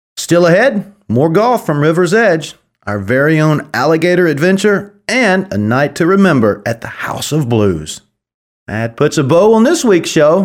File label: voiceover; golf channel